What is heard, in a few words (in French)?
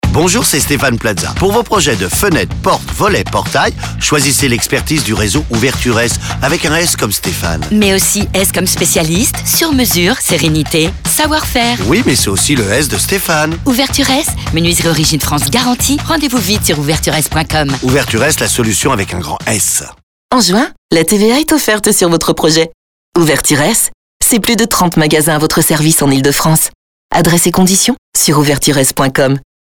spot radio; ILE DE FRANCE